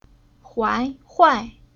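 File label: "Huai", Chinese syllable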